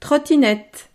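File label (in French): "trottinette", French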